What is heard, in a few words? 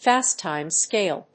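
"fast-time scale", English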